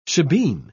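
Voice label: "shebeen", British English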